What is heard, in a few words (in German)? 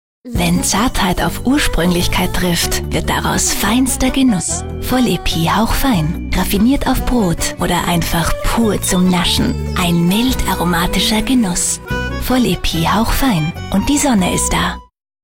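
Austrian, Fremdtake Sanft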